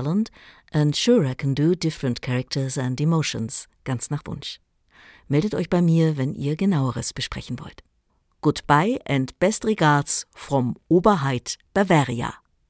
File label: english with heavy german accent; Muttersprache Deutsch